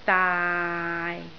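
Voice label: "daai6", Cantonese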